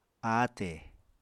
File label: "ate", Apatani